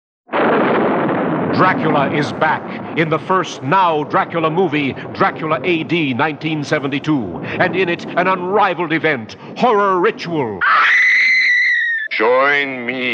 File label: Radio Spots; Dracula A.D. 1972; HorroRitual